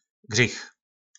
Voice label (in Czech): "grych", nářečí Po našimu; Hřích